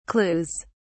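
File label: "clues", English, British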